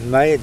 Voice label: "breadfruit tree", Mokilese